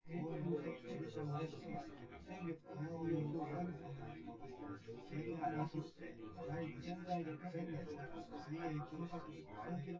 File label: male synth conv